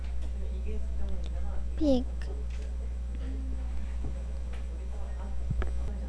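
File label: "pick", spoken word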